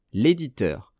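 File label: "l editeur", French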